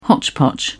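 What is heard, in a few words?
"hotchpotch", British English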